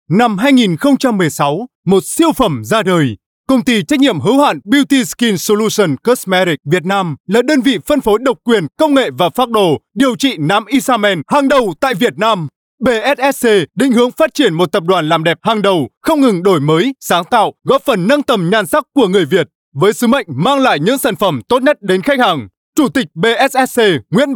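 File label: Vietnamese North Male; iSAMEN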